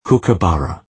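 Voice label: "kookaburra", English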